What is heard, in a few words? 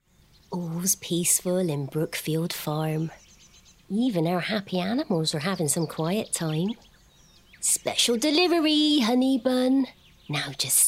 Animation; Character Sample (West Country)